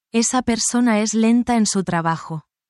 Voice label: spanish, spanish phrases negative language